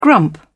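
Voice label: "grump", British English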